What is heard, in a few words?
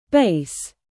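"present", noun, British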